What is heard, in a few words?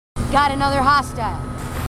marines female; marine tough; h2dialogue